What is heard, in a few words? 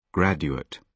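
"graduate", British English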